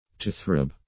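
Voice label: "to throb", English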